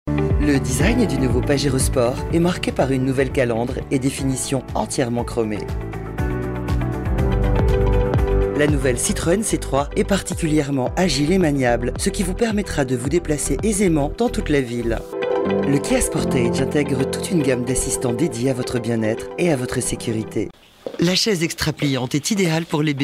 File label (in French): voix off feminine posee rassurante